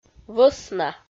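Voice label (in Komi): "вӧсна", Komi, female; audio dict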